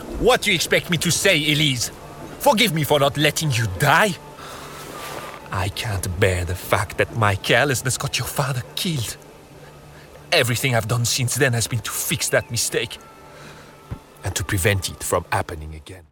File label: English French Accented; Assassins Creed Unity; Arno